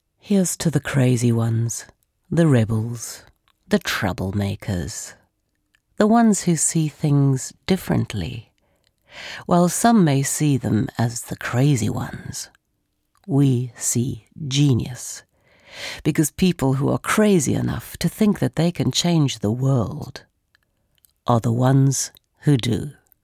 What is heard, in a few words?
English Corporate